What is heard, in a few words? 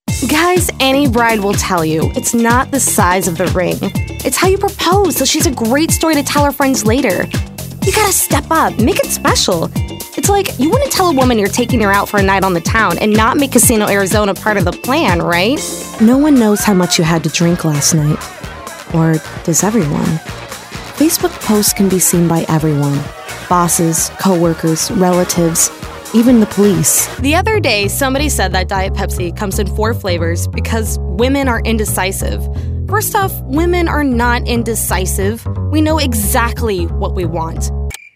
Commercial